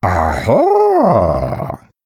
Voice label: Male Murloc Picked; en

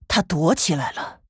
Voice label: Female Human ERROR STEALTH; zh

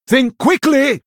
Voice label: Male Human Attack; en

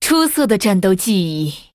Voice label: Female Nightborne Well Played, zh

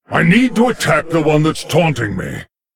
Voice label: Male Lich ERROR TAUNT; en

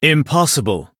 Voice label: Male Blood Elf ERROR GENERIC

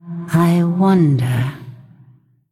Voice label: Female Ghost THINK; en

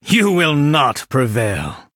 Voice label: Male Blood Elf Threaten

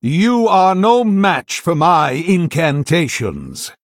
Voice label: Male Human Threaten

